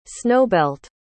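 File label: "snowbelt", English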